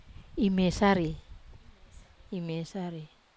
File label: "mesari", Wamesa